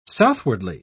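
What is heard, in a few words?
"southwardly", English, British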